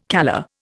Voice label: "calla", Indian

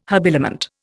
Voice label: "habiliment", Indian

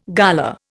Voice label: "gala", Indian

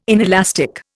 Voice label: "inelastic", Indian

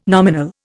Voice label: "nominal", Indian

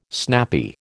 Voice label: "snappy", American

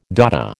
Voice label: "Dada", American

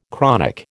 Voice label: "chronic", American

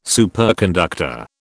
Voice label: "superconductor", British

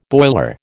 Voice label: "boiler", American

